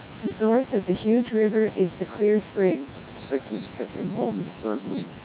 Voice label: short snr 10 db, speech at SNR = 10 dB, CODEC2 1200 bps